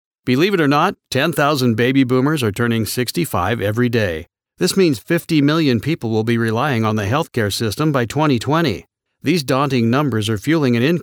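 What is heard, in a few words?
American English, male, voice over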